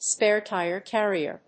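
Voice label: "spare tire carrier", English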